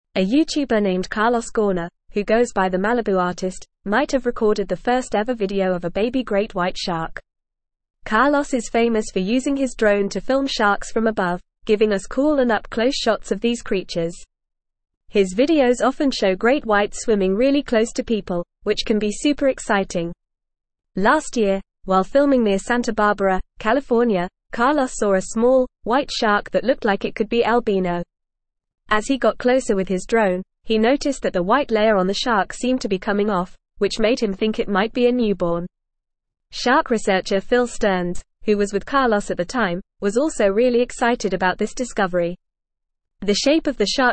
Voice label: English Newsroom Upper Intermediate FAST Reading; TheMalibuArtist captures possible birth of great wh

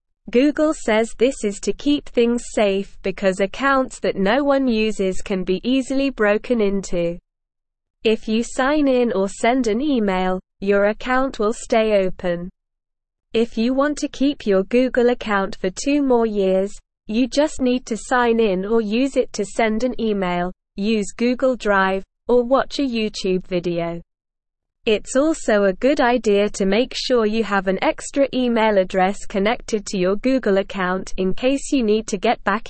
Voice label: English Newsroom Lower Intermediate SLOW Reading; Google Removing Unused Accounts to Keep You Safe

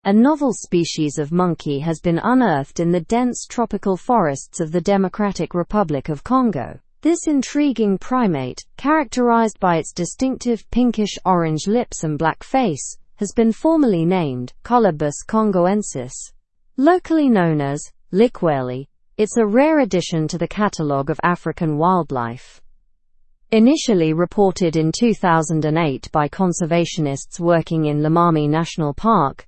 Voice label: learn english through news advanced normal; new species of monkey discovered in dr congo forest

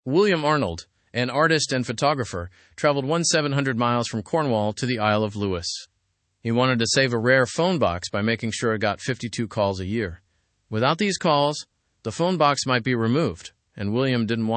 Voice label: english news lower intermediate fast; man travels to save rare phone box

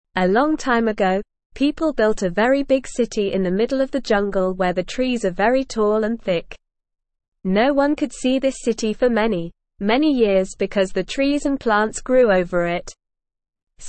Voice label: English Newsroom Beginner NORMAL Reading

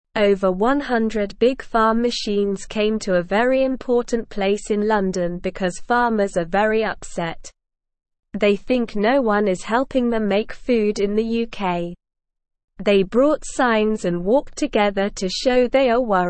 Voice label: English Newsroom Beginner SLOW Reading; Farmers in London protest for help with food

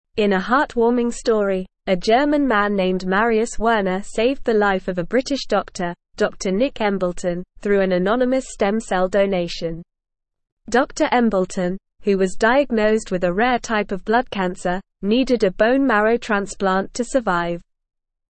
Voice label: English Newsroom Advanced NORMAL Reading; Young German Man Saves British Doctors Life

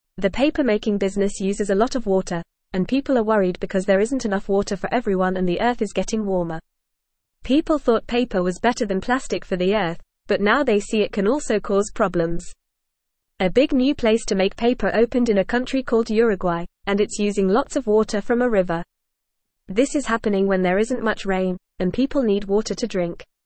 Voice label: English Lower Intermediate FAST Reading; Paper Making and Water Use A Big Concern

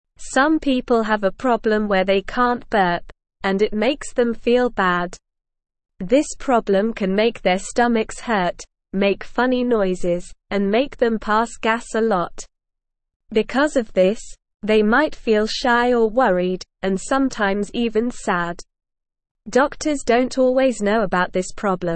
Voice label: English Lower Intermediate SLOW Reading; Trouble Burping Can Make People Feel Bad; English Newsroom

